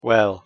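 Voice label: pronunciation "well", English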